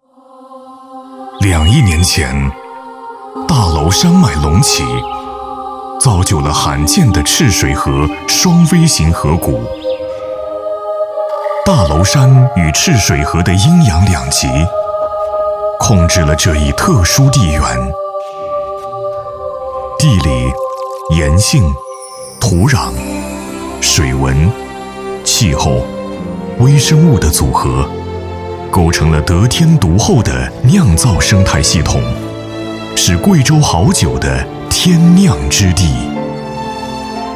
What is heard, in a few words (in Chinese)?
男, 品质广告, 高端大气; 大气主流; 贵州好酒